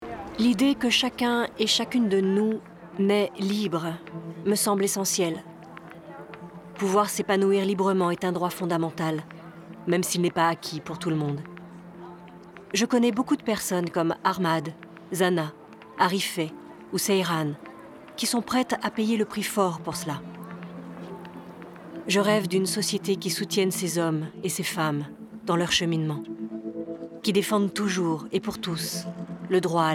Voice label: Commentaire; Naitre libre

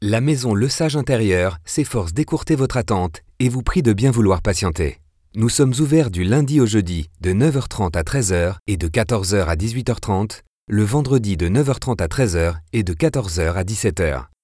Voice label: Repondeur

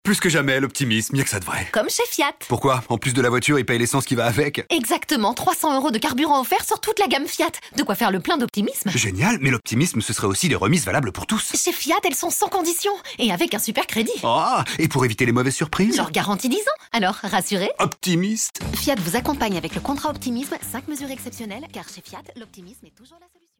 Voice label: RADIO; FIAT; OPTIMISME

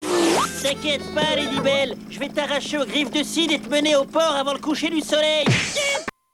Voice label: DESSIN ANIMÉ

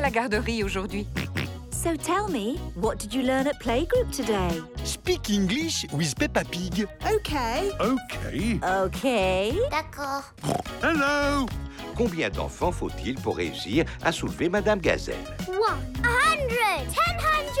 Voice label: BA ANGLAIS; PEPPA PIG

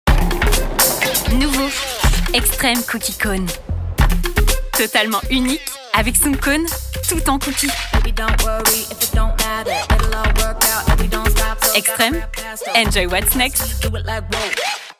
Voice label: pub TV; NOUVEAU Extreme® Cookie Cone

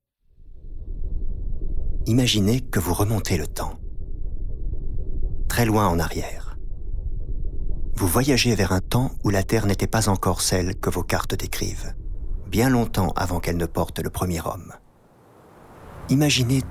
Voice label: Docu Dinosaure

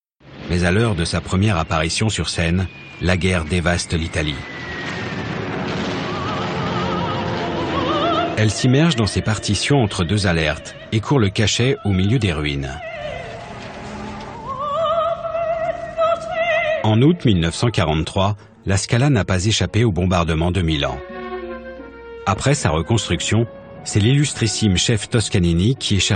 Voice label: Voix Off Doc Posé et grave; Fr5 Callas

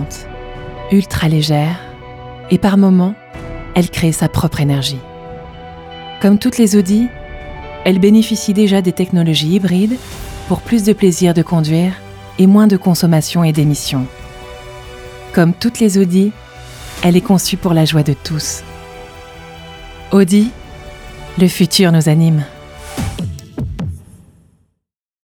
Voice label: Publicite Voiture; voix-off

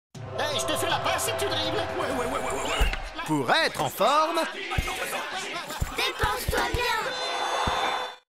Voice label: Message Sanitaire Cartoon et voix; France TV

